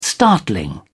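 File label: "startling", British English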